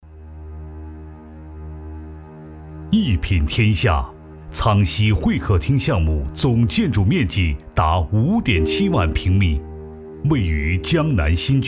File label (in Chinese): 男 专题 样音; 苍溪会客厅项目